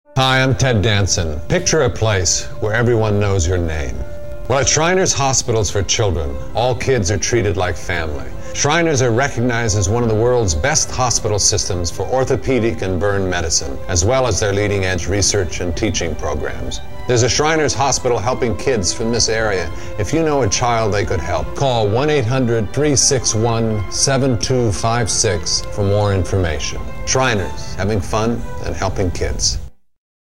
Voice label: Radio PSA; Ted Danson; Shriners Hospitals